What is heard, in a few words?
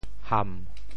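"ham7", Teochew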